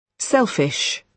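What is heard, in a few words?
"selfish", British English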